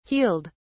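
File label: "healed", British English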